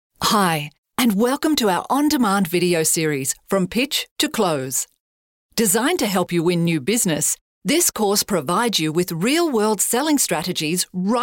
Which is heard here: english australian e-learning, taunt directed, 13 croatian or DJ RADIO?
english australian e-learning